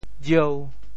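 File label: "jieu5", Teochew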